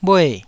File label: "bhue4", taiwanese Syllables